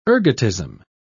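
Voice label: "ergotism", British English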